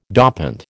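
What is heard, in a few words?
"dopant", American English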